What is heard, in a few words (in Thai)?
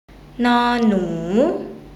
"น หนู", Thai